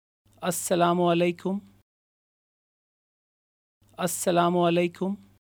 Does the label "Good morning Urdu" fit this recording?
Yes